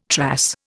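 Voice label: "trass", Indian English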